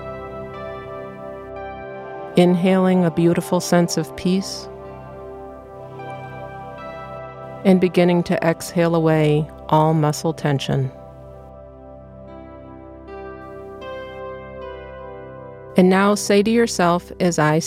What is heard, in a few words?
meditation